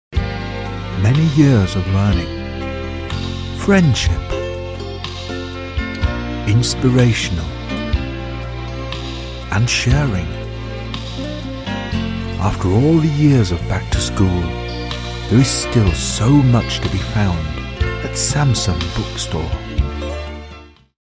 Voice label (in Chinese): 外籍男; bookstore